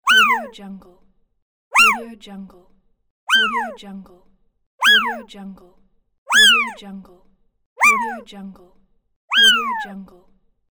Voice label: cartoon girl ooh